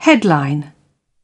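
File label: "head", British English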